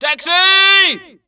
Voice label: TAXI